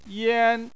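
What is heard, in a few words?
"yan", Chinese, first tone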